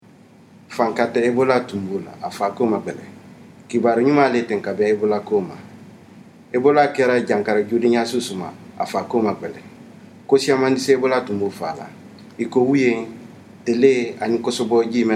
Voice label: malinke; ebola; radio-spots; vhf